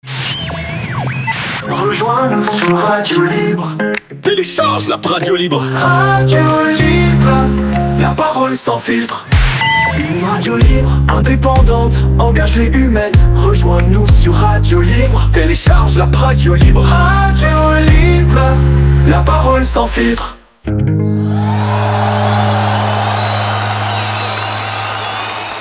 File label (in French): Radio Libre promo